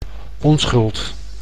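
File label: "onschuld", Dutch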